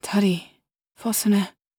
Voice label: worried

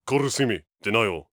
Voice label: angry

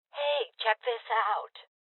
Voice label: Voices Loot Spotted Female Old; rebel cops 2019

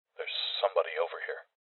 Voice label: Voices EnemySpotted Male; Rebel Cops

